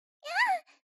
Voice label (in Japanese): mob 女子 台詞